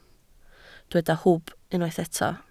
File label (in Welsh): "hwb", Welsh